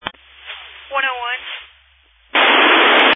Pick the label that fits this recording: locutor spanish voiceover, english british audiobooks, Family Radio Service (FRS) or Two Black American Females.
Family Radio Service (FRS)